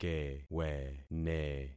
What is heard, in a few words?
"kewene", concat syllables; Affixing experiment